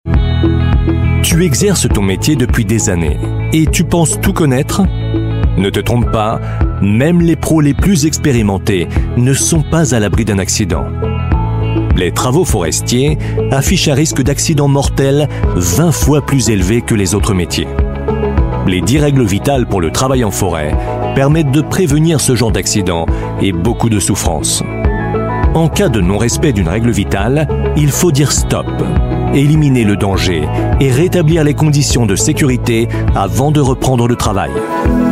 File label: french voice over male elearning